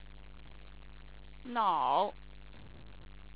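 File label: "lao", Chinese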